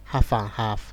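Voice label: "half and half", Nigerian Pidgin, male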